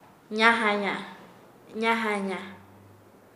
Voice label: Nasa Yuwe; trozar; paez

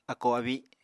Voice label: "akó-abi", Apatani

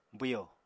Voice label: "bwyo", apatani

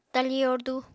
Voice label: "tali yordu", Apatani

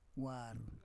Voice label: "war water", Roon

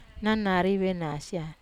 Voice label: "nanaria venasia", Wamesa

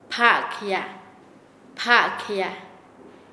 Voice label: Nasa Yuwe; remendar; paez

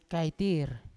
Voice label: "kaitir" thunder, Yawa

